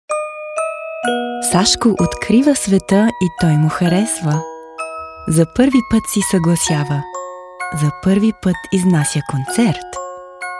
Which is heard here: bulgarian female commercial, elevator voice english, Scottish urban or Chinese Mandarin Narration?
bulgarian female commercial